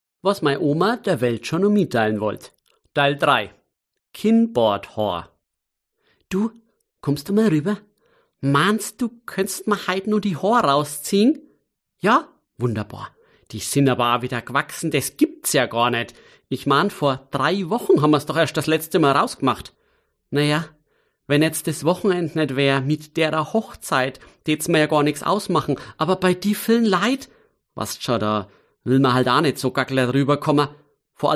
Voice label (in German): Mundart